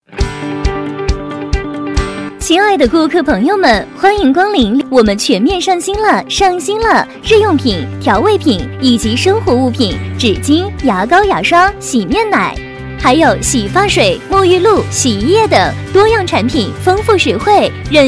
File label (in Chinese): 女 甜美广告; 零食仓